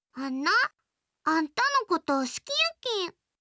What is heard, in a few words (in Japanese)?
好きです 香川; 亜美